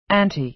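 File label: "ante", American English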